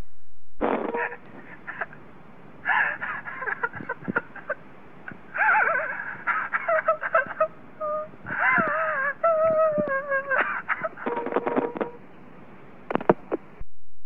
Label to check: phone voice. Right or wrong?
Right